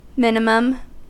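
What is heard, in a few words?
"minimum", American English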